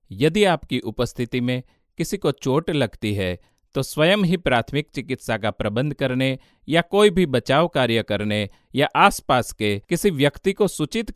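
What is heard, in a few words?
safety narration hindi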